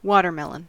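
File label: "watermelon", American English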